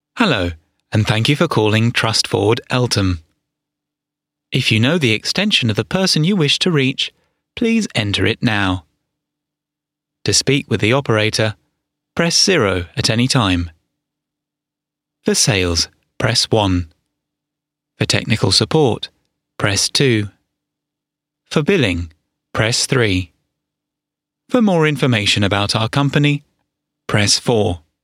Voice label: french voice telephone

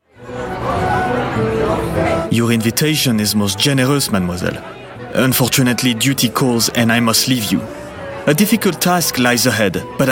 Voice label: french male video games en w fr

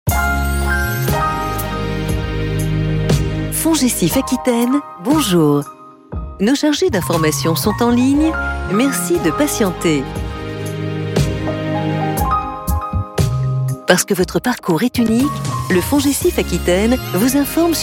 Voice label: French announcer telephone IVR

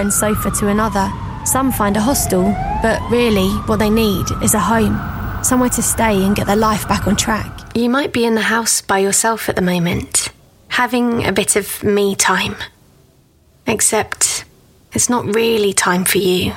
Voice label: awareness campaigns, Young Fun Female

